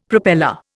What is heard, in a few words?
"propeller", Indian; Dictionary